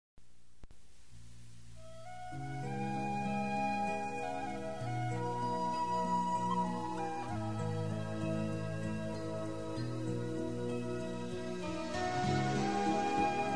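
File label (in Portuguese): Romantica, feminino; Telemensagens; Românticas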